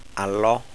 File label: spread, maasai